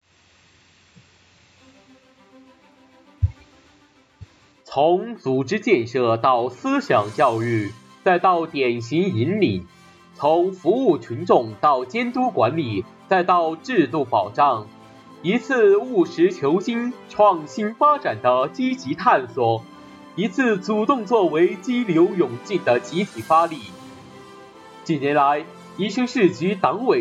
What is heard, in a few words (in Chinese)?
党政宣传